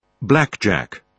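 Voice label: "blackjack", British English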